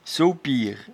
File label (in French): "sopiere", patois jura